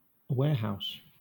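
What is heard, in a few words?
"warehouse", English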